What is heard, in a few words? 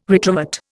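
"retrovert", Indian English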